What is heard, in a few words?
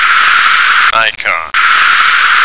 "icon", American